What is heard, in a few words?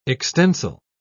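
"extensile", British English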